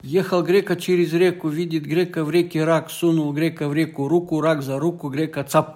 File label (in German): Zungenbrecher Russisch; Kompass-Berlin